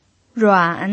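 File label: "ruan" Mandarin Chinese, third tone, pinyin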